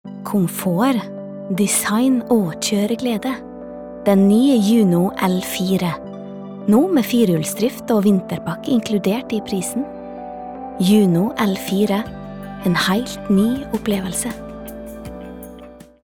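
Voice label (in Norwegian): no reklame soft, Norwegian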